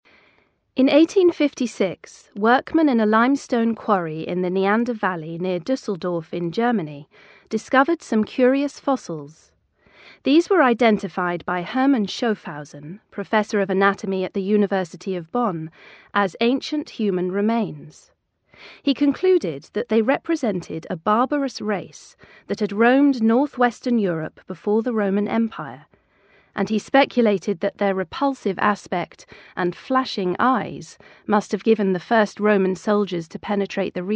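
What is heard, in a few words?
British English, female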